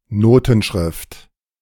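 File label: "Notenschrift", German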